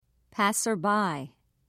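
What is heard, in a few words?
"passerby", British English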